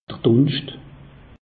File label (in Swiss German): "dunscht", alsace; meteo